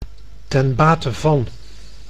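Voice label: "ten bate van", Dutch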